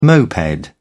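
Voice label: "moped", British English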